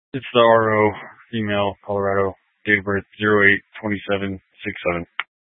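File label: Troop 2A Dispatch, scanner audio recording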